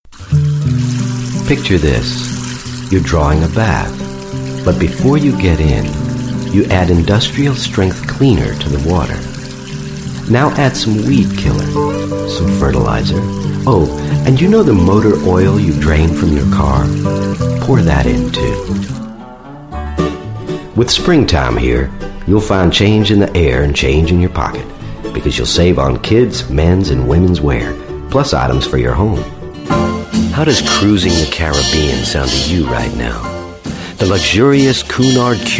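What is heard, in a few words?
US commercial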